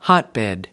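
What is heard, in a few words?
"hotbed", American English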